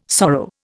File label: "sorrow", Indian